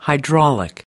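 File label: "hydraulic", American English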